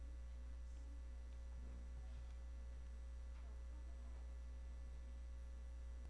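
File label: "gentle", spoken word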